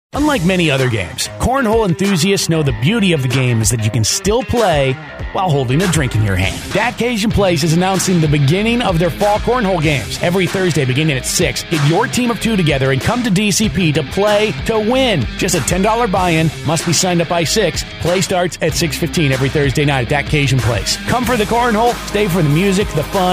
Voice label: general commercial